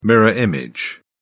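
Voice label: "mirror image", British English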